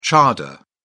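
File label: "chador", British English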